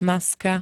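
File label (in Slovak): "maska", slovenské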